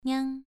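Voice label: "niang", tone 2, pronunciation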